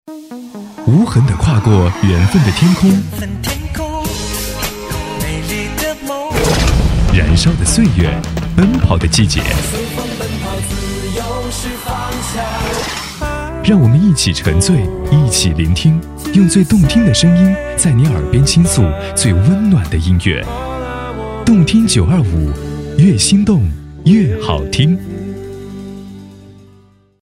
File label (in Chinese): 电台 宣传, 国语男声配音; 电台动听925; 大气沉稳